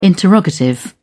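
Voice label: "interrogative", British English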